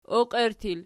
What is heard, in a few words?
"oqierttur", Archi